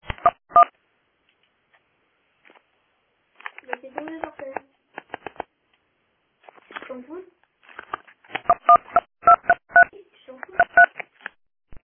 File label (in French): message telephoniques